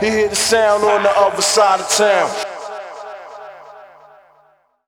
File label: Town Vox; Jungle; Vocals; Blu Mar Ten - Jungle Jungle - 1989 to 1999 Samplepack